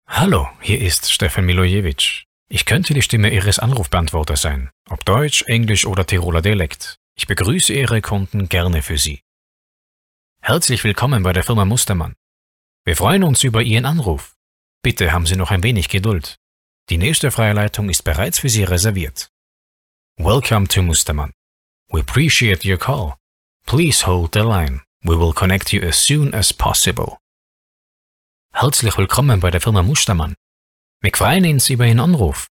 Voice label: Telefonansagen

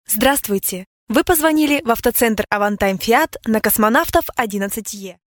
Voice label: Sprecher Native speaker

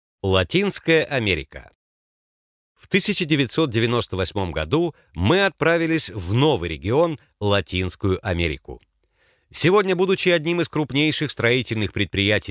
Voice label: Sprecher Native speaker

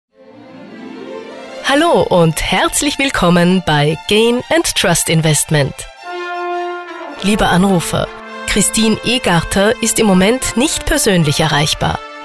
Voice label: Sprecher Showreel, Eine Stimme mit großer Bandbreite für jede Tonalität; Sprecherin

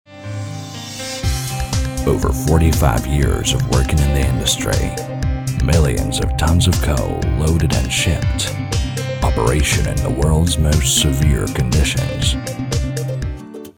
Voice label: Sprecher Native speaker

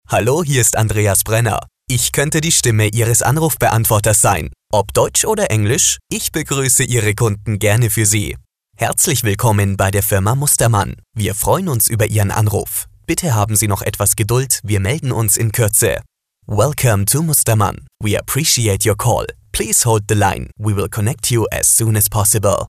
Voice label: Sprecher Telefonansagen; Professionelle Sprecher

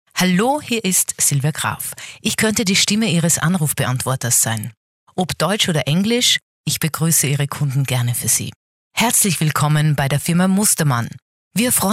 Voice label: Telefonansagen; weibliche Sprecher

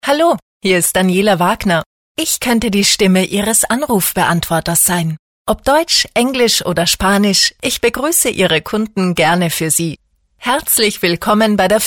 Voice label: Telefonansagen

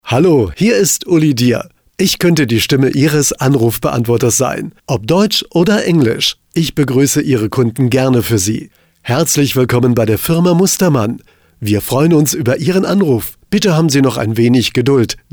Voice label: Sprecher Telefonansagen

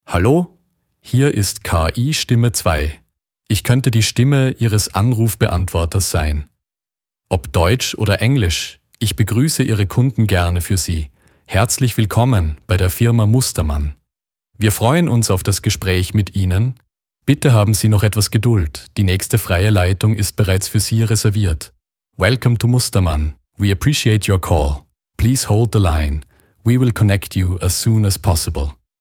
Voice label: KI-Stimme

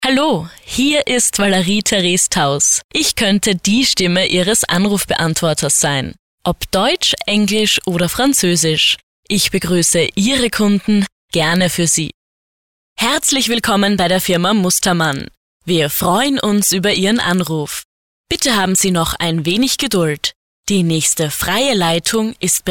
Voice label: Telefonansagen

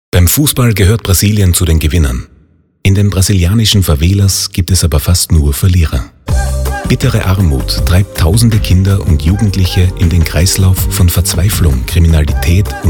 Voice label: Sprecher Showreel

